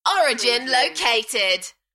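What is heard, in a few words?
British female Origin located Enthusiastic; beanstalk audio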